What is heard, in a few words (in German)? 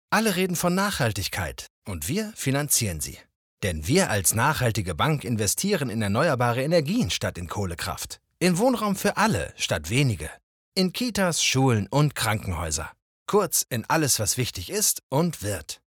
Casting Werbung